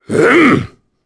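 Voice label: Vox Attack, Japanese; Kaulah; kingsraid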